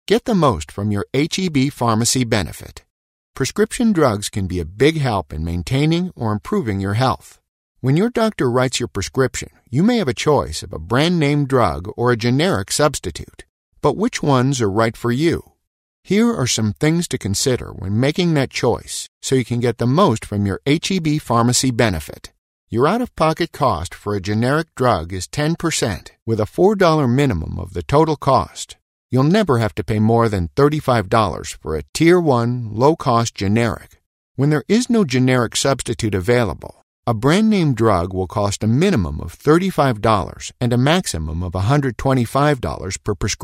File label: eLearning Healthcare